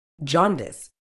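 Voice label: "jaundice", British English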